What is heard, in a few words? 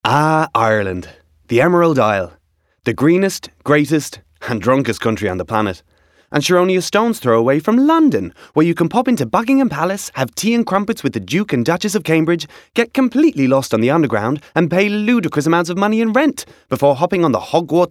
Accent